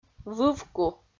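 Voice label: "вывку", Komi, female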